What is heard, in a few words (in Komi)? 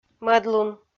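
"мӧдлун", Komi, female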